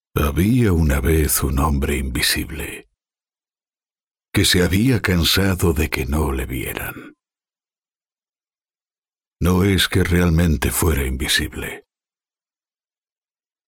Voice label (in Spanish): spanish movie trailers